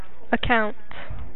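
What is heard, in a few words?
"account", American, female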